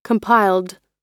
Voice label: "compiled", us

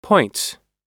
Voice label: "point", US

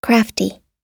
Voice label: "crafty", us

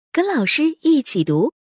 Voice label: teacher read follow

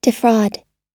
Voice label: "defraud", us

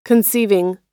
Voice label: conceiving, us

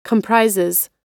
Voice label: "comprises", us

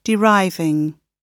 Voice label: "deriving", gb

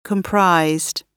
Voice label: "comprised", gb

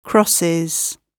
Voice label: "crosses", gb

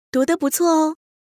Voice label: teacher read good

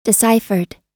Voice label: "deciphered", us

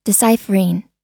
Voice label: "deciphering", us

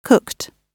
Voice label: "cooked", gb

